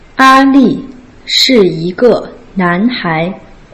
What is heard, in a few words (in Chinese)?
a li shi yi ge nan hai, Putonghua